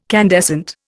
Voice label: "candescent", Indian